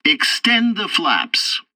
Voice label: tutorial takeoff preparation, english; War Thunder